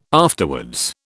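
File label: "afterwards", British English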